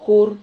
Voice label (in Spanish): "jur", Silabas